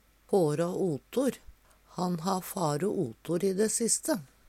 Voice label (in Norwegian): fårå otor, Numedalsmål; Han ha fare otor i dæ siste.; minke i vekt, helst av sjukdom